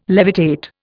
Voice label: "levitate", Indian